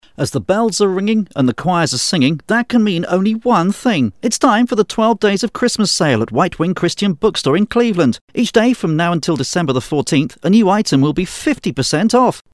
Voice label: English